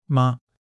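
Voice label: "吗", Chinese; HSK1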